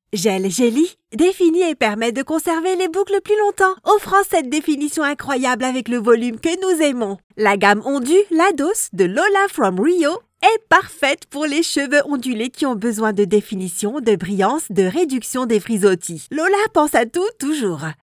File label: demo advertising